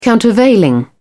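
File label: "countervailing", British English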